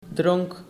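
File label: "dronk", Dutch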